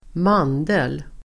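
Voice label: "mandel", Swedish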